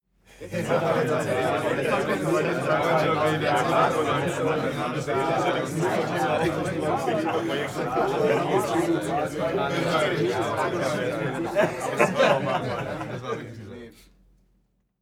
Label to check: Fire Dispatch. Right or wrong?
Wrong